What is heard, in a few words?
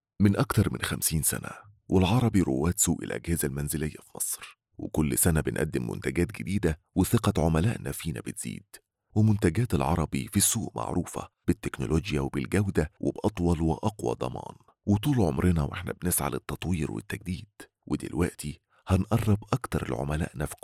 Film Narration Egyptian Dialect; ALARABY